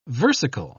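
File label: "versicle", British English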